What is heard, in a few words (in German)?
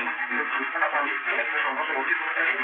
hier spricht papa, noise 55db 6000; tonbandstimmen; hessischer rundfunk